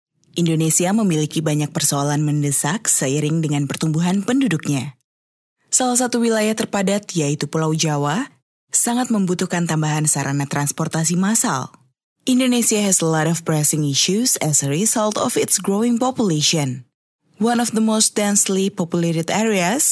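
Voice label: Indonesian, female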